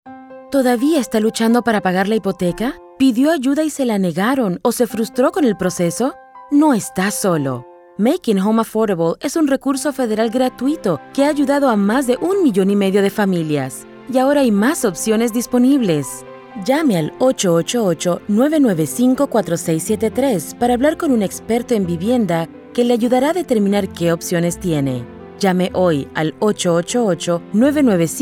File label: Span Fem; Program Changes